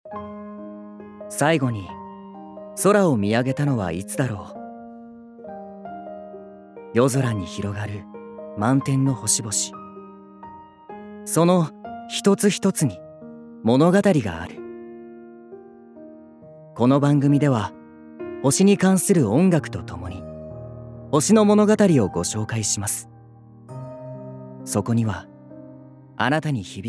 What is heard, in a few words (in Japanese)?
ナレーション